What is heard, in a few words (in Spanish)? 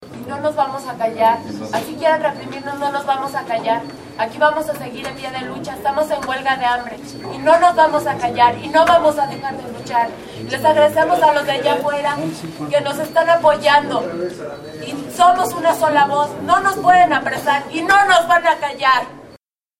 mujer; presas del penal de santiaguito; no nos vamos a callar